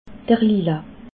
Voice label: "lila", alsace

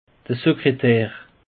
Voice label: "sekretar", alsace; peche

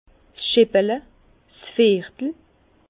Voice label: "scheppele", Bas Rhin; pichet

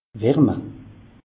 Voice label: "warme", alsace; meteo

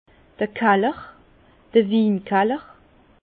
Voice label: "kaller", Bas Rhin; vin; cave

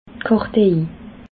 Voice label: "kartej", alsace

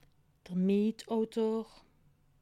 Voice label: "mitautor", alsace

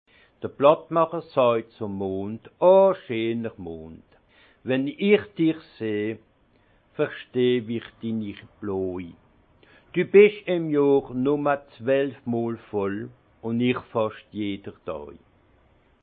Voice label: "plattmacher", alsace; Le clochard s’adresse à la lune : Oh belle lune, que je comprends ton chagrin Toi, tu n’es

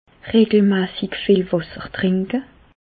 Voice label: wasser, Bas Rhin; medecine; boire régulièrement beaucoup deau

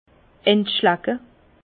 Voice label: "entschlacke", Bas Rhin; vin; détartrer

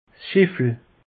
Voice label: "schiffel", alsace; peche

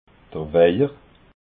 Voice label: "waiher", alsace

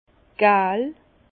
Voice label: "gal", Bas Rhin; jaune; vin

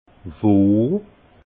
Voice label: "wo", alsace

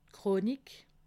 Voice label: "chronik", alsace

